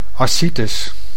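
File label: "ascitis", Dutch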